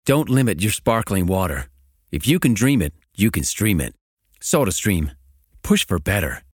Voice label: American Accent; Soda Steam